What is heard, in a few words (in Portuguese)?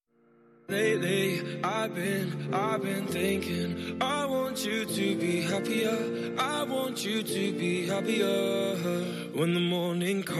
Telemensagem Aniversário de Tia – Voz Masculina